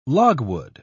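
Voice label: "logwood", British English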